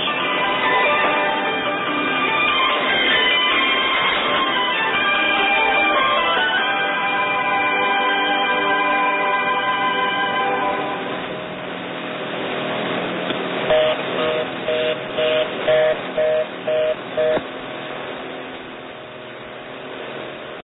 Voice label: Philippines Broadcasting Service 15120kHz

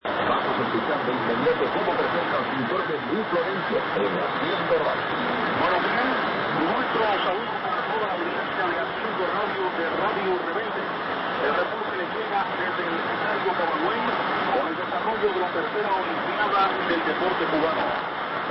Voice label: Radio Rebelde 5.025MHz

